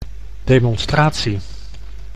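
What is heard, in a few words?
"demonstratie", Dutch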